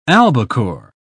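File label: "albacore", British English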